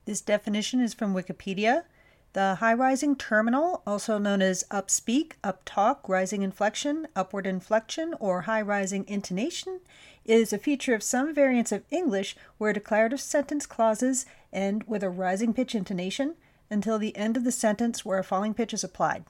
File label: uptalk example